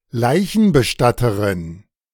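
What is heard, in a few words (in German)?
"Leichenbestatterin", German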